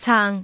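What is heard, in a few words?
"caang3", Cantonese; microsoftHiuGaai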